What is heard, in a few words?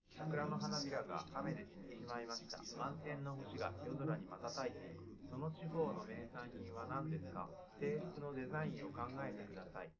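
male4 live recording 250ms 5cm, underdetermined; SiSEC11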